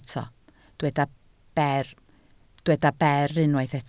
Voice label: "ber", Welsh